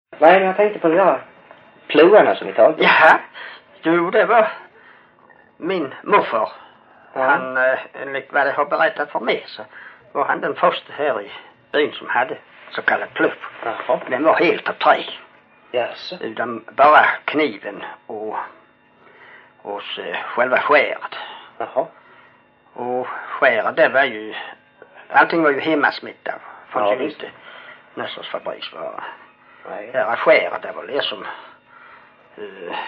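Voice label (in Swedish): Hörja Skåne, dialekter; Folkminnen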